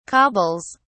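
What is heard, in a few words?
"cobbles", British English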